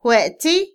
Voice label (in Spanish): brillar, Otomí, mujer; Percepción; INALI